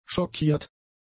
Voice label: "schockiert", German; adjectives